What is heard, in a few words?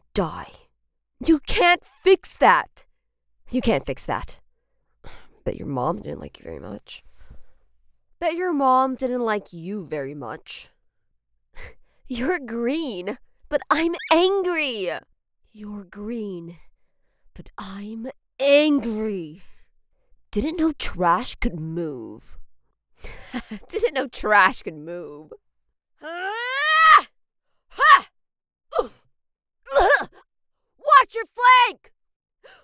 Recruit Female